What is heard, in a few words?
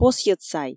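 "посйӧдсай", Komi, female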